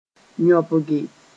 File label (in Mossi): "yõbge", moore